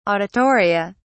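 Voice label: "auditoria", British English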